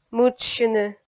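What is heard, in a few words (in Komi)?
"мыджсьыны", Komi, female; kpv audio dict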